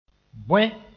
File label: "bõe", moore